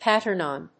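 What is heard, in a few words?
"pattern on", English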